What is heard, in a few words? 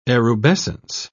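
"erubescence", British English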